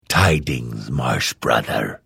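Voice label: Hello, Vo; Morrowind GOTY GOG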